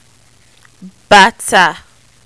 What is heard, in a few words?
"bata", Yoruba